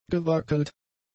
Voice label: "gewackelt", German; adjectives

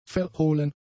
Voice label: "verhohlen", German; adjectives

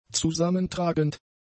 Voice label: "zusammentragend", German; adjectives

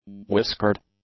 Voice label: "whiskered", english; adjectives

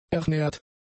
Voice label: "ernährt", German; adjectives

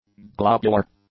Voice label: "globular", english

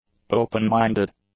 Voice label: "open-minded", English; adjectives

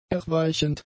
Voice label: "erweichend", German; adjectives

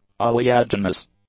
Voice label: "oleaginous", English; adjectives

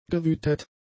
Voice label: "gewütet", German; adjectives

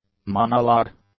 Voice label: "monolog", English; nouns

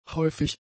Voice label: "häufig", German; adjectives

